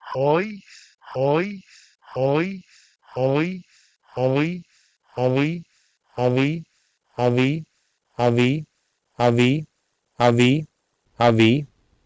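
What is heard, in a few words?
Proto-Indo-European h3owis to Sanskrit avi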